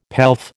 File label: "pelf", American